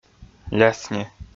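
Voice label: "лясни", Komi, male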